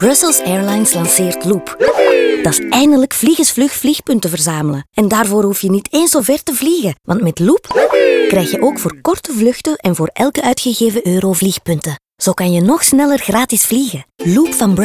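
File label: radio loop NL Intro Music; SNB; Pas Loin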